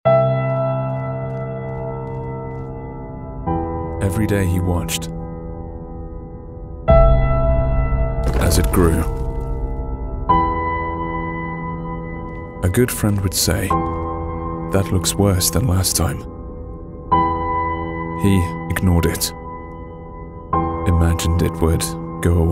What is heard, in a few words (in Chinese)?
外籍英男 深情讲述 故事旁白